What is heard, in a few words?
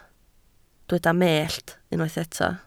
"mellt", Welsh